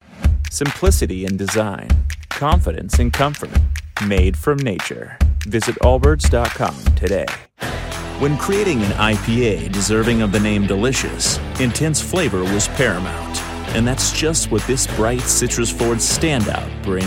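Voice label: US English Commercial; GoLocalise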